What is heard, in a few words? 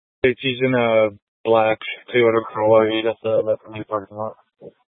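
Police Dispatch, Scanner audio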